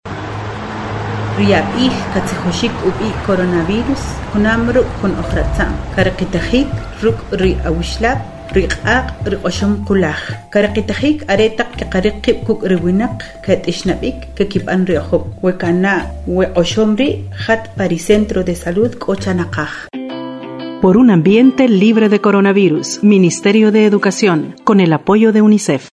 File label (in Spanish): Que es coronavirus Kiche; prevencion